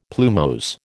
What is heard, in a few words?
"plumose", American